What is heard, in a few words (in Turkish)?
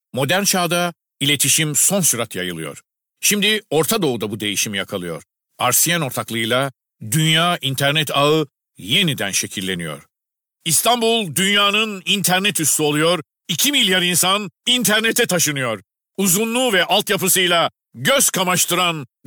Guclu Otoriter